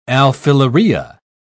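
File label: "alfilaria", British English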